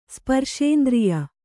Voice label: "ಸ್ಪರ್ಶೇಂದ್ರಿಯ", Kannada

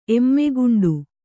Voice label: "ಎಮ್ಮೆಗುಂಡು", Kannada

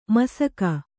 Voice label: "ಮುಸುಕ", Kannada

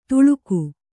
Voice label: "ತುಳುಕು", Kannada

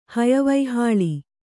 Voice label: "ಹಯವೈಹಾಳಿ", Kannada

